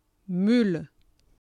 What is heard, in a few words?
mule, fr; FoodChain